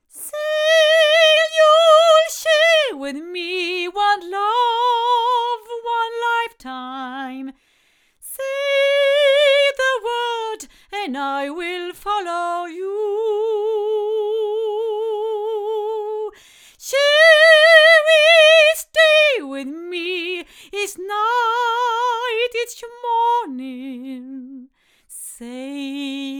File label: female